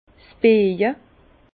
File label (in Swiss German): "bieja", Bas Rhin; liage; vin